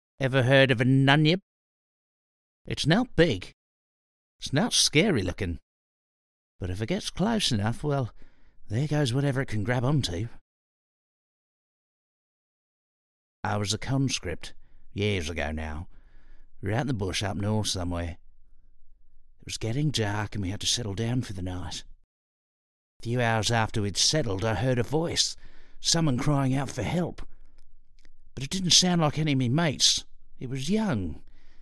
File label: male English with cartoon Australian accent; Devine Voices